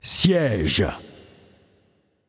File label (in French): Mode Siege, French